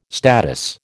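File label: "statice", American English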